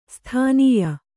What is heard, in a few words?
"ಸ್ತನ್ಯ", kannada